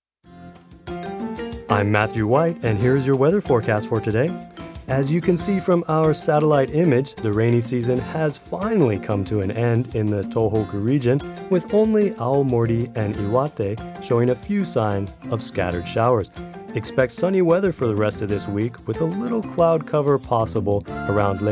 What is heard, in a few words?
usa man